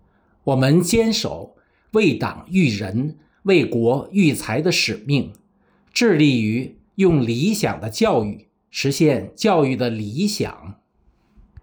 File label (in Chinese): 宣传片试音